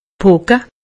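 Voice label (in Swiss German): "pocke", Alsatian; medecine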